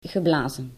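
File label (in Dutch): "geblazen", Dutch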